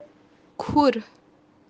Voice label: "khur", entanglement kashmiri